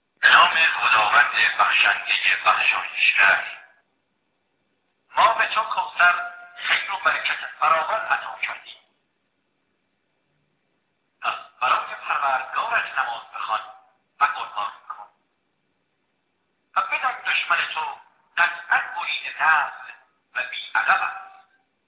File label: Farsi